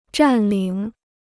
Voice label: "占领", Chinese

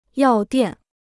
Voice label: "药店", Chinese

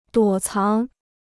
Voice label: "躲藏", Chinese

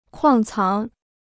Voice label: "矿藏", Chinese

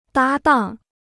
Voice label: "搭档", Chinese

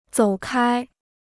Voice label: "走开", Chinese

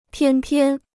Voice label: "偏偏", Chinese

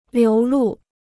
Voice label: "流露", Chinese

